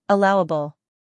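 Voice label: "scandal", American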